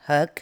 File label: "hug", english